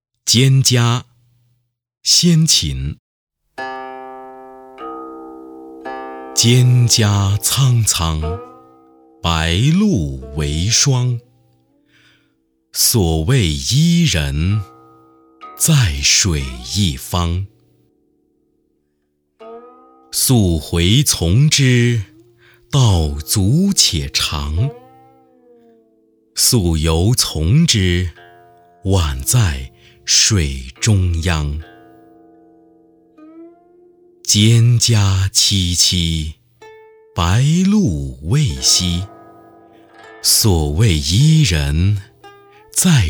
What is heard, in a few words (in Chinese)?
课件朗诵; 蒹葭